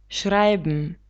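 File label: "schreiben", German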